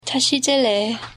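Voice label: Tibetan tashi delek hello; languages; common phrases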